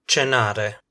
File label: "cenare", Italian